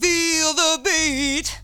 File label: FEEL BEAT W, female; AMG; Global Trance Mission VOL-2